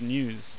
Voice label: news